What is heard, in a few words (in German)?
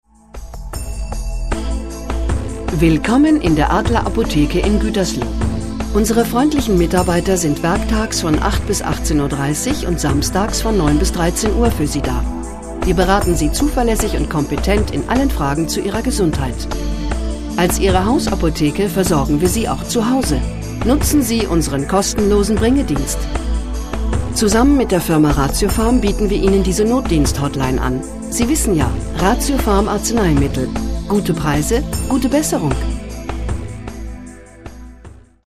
anrufbeantworter; adler apotheke